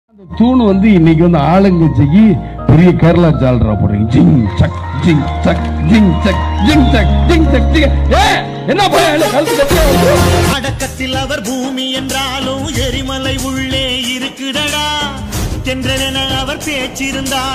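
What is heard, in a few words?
mass speech, tamil; Vijayakanth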